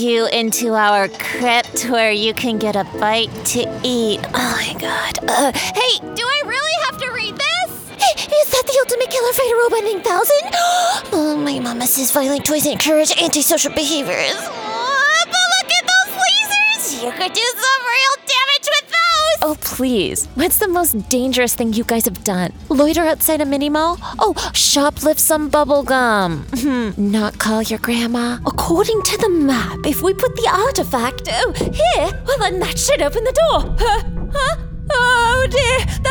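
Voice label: Character, voice over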